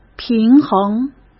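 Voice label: "平衡", Mandarin Chinese; chinese flashcards; hsk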